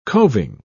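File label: "covin", British English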